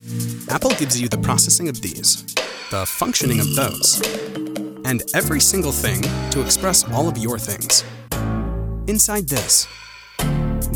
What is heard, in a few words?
Commercial; Apple Do What Moves You